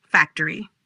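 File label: "Factory", English; places in a town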